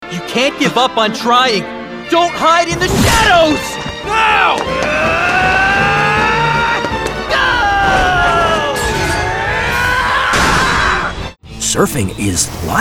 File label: Animation